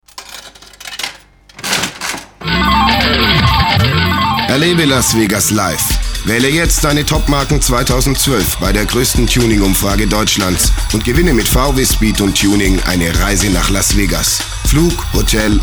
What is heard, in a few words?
Radiospot; Sema Las Vegas